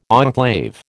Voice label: "enclave", American

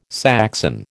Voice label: "Saxon", American

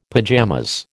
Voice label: "pajamas", American

